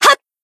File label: Battle Shout; Kaho